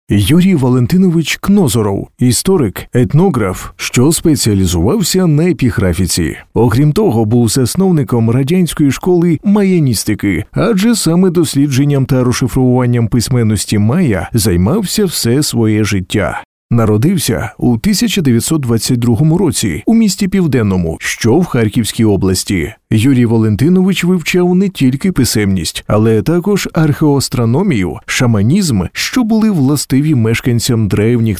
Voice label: ukrainian audiobooks